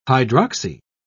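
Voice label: "hydroxy", British English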